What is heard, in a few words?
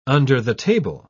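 "under-the-table", British English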